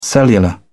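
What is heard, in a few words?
"cellular", British English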